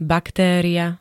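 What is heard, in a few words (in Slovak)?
"baktéria", Slovak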